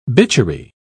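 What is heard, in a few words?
"bitchery", British English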